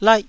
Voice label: "laih1", Taiwanese syllable